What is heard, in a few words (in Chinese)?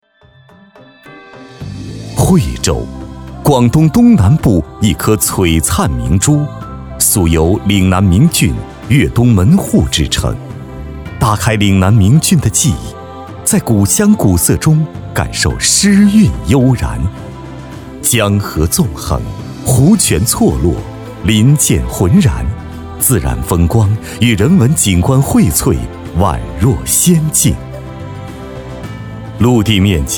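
男国大音-城市宣传片; 惠州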